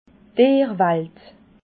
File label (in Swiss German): "tierwalt", alsace